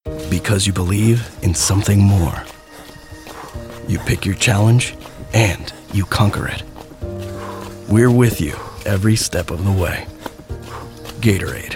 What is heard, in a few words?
Dramatic Epic English